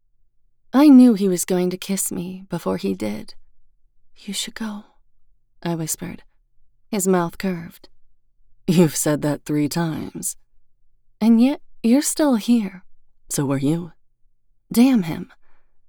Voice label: Contemporary Romance MF 1st Person POV